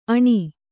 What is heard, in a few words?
"ಅಣ್ಣಿ", Kannada